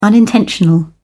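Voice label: "unintentional", British English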